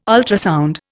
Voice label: "ultrasound", Indian